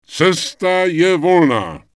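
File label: Night Elf Male error ammo only, Czech; wowpreklad; czwow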